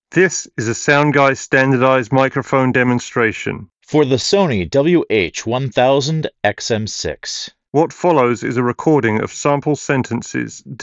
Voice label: reverb; Sony WH-1000XM6